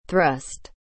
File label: "thrust", English